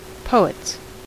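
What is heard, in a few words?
"poets", US English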